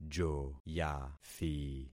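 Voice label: "joyathi", concatenated syllables; Affixing experiment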